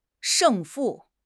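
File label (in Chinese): "胜负", Chinese; HSK6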